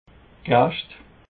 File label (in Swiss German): "gaescht", alsace